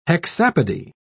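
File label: "hexapody", English, British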